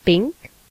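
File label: pink, Serbian; gcompris; colors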